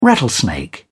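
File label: "rattlesnake", British English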